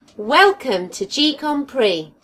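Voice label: welcome, Brazilian Portuguese; gcompris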